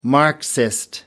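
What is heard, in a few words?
"marxist", British English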